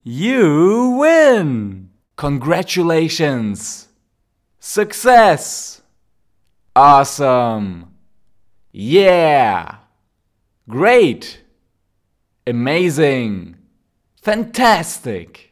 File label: Male Voice Short Positive Feedback Phrases